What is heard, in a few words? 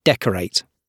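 "decorate" spoken, British English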